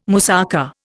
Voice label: "moussaka", Indian

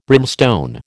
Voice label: "brimstone", American

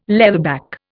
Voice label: "leatherback", Indian

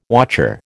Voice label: "wotcher", American

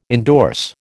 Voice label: "indorse", American

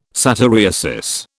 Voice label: "satyriasis", British

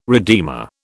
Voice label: "redeemer", British

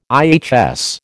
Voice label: "IHS", American

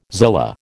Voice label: "zillah", American

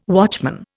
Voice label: "watchman", Indian

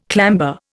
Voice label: "clamber", Indian

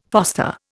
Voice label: "foster", Indian

